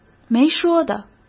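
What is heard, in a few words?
"没说的", Mandarin Chinese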